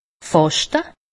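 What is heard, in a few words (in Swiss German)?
"faschte", Alsatian; medecine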